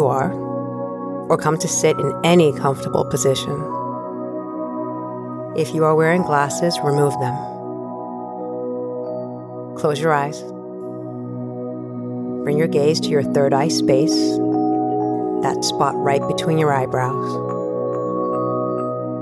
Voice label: Meditation